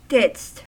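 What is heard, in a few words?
"didst", US English; pronunciations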